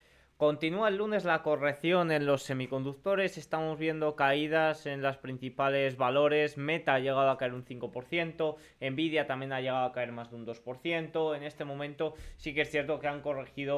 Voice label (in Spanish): radio; noticias bolsa; continua la correcion de la gran tecnologia